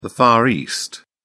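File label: "far east", British English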